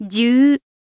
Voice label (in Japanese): info lady "zyuu"